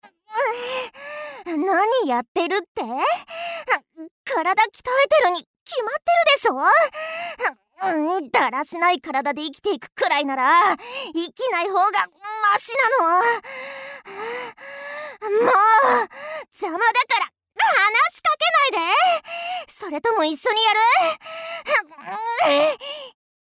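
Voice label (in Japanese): 女性 ロリ 元気; 元気10; アトリエピーチ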